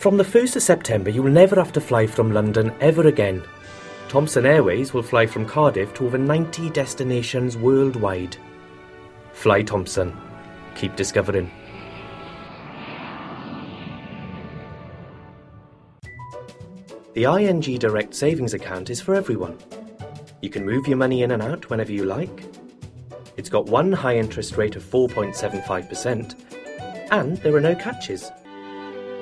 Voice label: Commercial Voice; Welsh; Male; 20s-30s